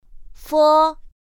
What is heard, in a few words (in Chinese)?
pinyin f; pinyin2; pinyinji